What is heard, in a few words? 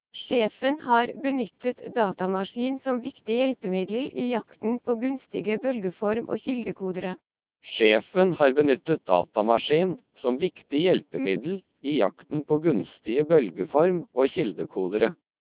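speech CODEC2 2400 bps, Norwegian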